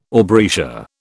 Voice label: "aubrietia", British

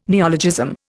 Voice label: "neologism", Indian

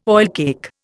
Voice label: "oilcake", Indian

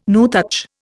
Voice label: "nuthatch", Indian

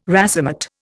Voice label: "racemate", Indian

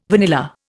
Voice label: "vanilla", Indian

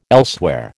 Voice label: "elsewhere", American

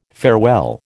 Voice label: "farewell", American

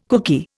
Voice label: "cookie", Indian

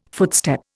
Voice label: "footstep", Indian

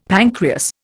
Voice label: "pancreas", Indian; Dictionary

